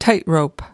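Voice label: "tightrope", US English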